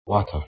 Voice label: "watar", Proto Germanic